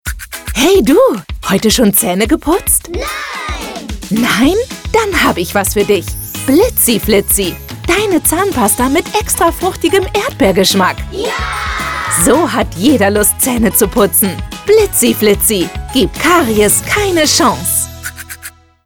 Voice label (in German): werbung; blitzi flitzi